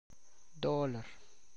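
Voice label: Tlacochahuaya Zapotec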